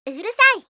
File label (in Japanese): "urusai", kanjou